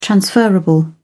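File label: "transferable", British English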